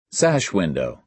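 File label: "sash window", American English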